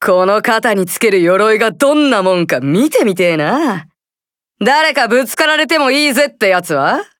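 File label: start, Japanese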